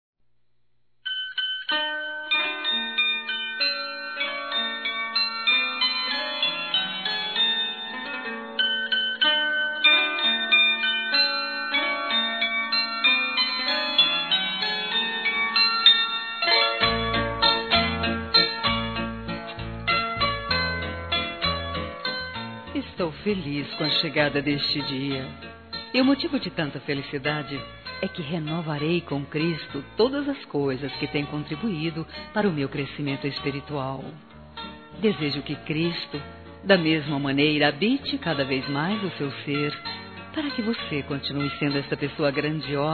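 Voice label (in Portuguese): Natal neutro feminino, Telemensagens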